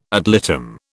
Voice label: "ad litem", British